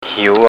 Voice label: "hiu", Thai